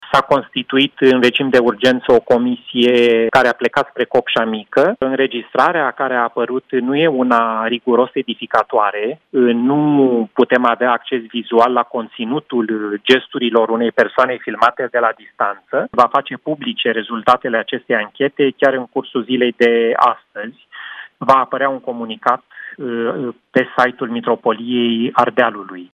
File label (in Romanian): TELEFON; ancheta profesor religie